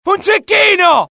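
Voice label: alert, Italian; mohaa; dialogue; sighted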